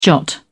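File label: "jot", British English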